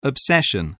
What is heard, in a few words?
"obsession", voice, spelling